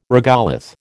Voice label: "regolith", American